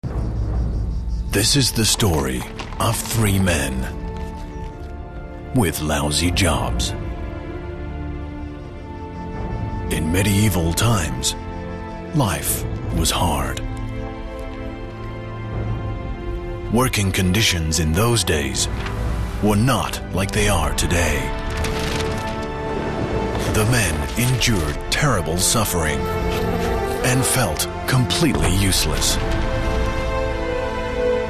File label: US trailer; Frisk